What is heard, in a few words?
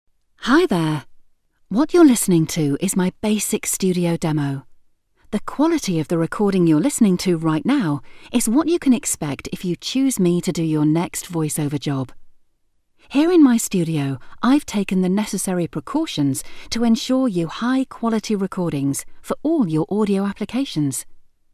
studio quality